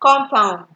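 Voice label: "compound", Nigerian Pidgin, female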